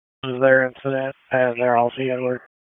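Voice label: Police Southeast District Dispatch; Raleigh; wake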